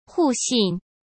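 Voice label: "互信", Mandarin Chinese; chinese-flashcards; hsk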